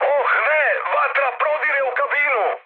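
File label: Serbian on fire mood high, speech; War Thunder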